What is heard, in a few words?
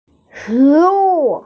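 "food", Mandarin; shi2wu4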